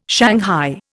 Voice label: "shanghai", Indian